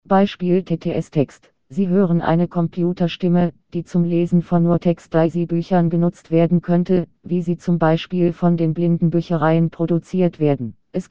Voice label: german Female